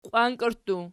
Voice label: "qiwankerttu", Archi